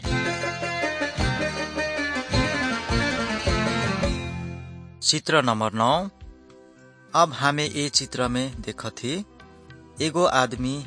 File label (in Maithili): good news maithili, nt drama; wycliffe bible translators inc; kisan